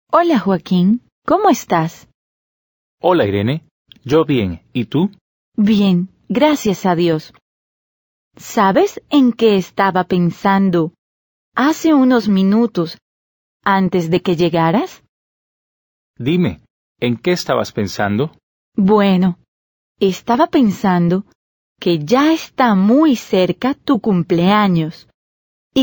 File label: Dialogue, Spanish